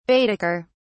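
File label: "baedeker", British English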